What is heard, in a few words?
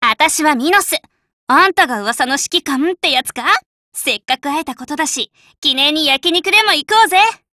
JP; Minosu